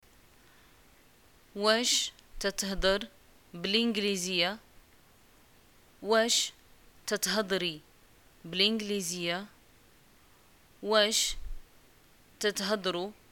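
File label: do you speak English, Moroccan arabic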